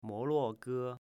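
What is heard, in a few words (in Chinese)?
"Moluoge", Chinese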